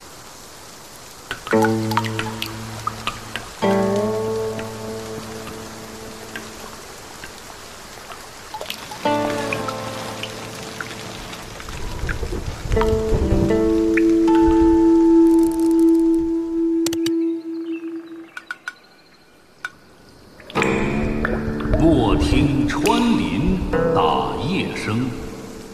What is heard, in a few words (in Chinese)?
男 广告 创意广告